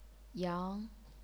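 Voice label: "yang", chinese pinyin, second tone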